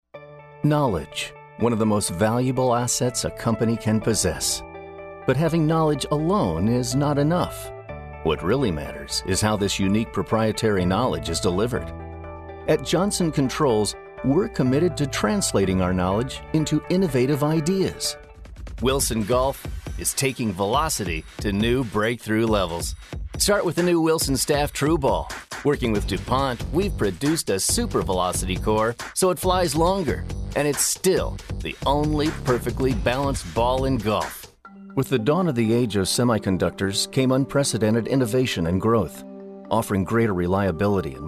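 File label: us narrative, American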